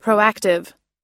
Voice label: "proactive", us; 率先した、順向性の

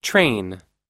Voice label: "train", us

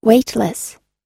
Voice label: "weightless", us; 重量の(ほとんど)ない、重力のない

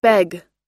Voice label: "beg" us; 請い求める、頼む

